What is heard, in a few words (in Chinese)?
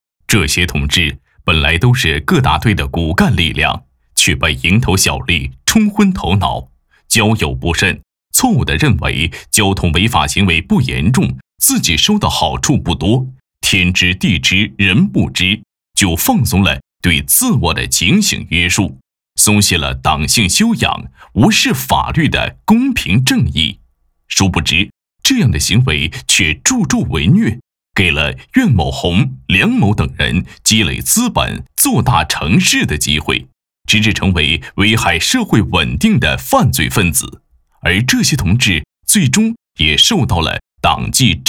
B男 专题片 警示教育